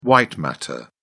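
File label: "white matter", British English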